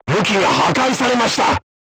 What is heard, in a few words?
voice message weapon destroyed, crew tanks japanese